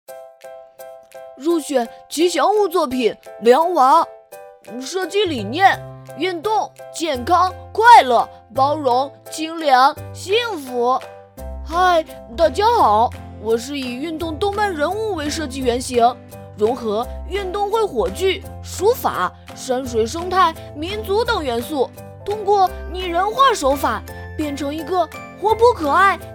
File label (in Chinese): 憨厚 男童